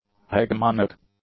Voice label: "hegemonic", English; adjectives

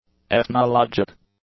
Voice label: "ethnologic", English; adjectives

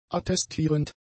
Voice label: "attestierend", German; adjectives

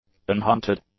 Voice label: "unhunted", english; adjectives

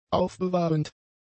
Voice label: "aufbewahrend", German; adjectives

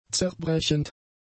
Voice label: "zerbrechend", German; adjectives

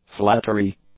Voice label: "flattery", English; nouns

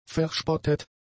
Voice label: "verspottet", German; adjectives

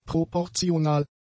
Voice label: "proportional", German; adjectives

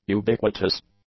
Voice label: "ubiquitous", English; adjectives

